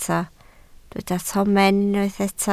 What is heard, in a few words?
"tomen", Welsh